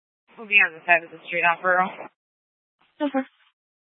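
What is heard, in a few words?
Tempe Police Department, scanner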